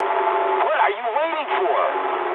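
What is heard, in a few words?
English attack position mood med; speech; War Thunder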